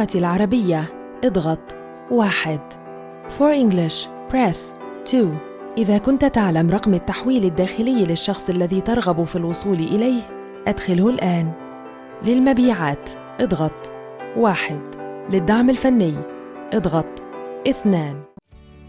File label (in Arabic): نداء المطار الرد الألى السرد المؤسسي عينة مجمعة